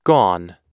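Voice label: "gone", American English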